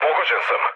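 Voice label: Serbian aircraft destroyed mood low, speech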